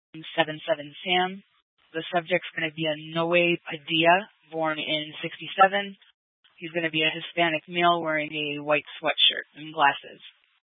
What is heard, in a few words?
Scottsdale Police, Simulcast